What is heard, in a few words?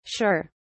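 "shir", British English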